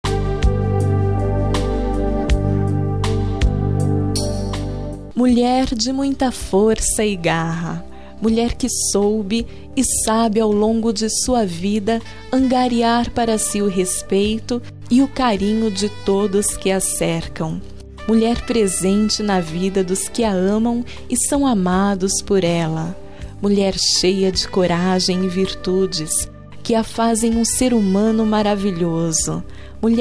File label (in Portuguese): dia da avó, voz feminina; dia dos avos para avo voz feminina